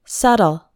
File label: "subtle", English; hard words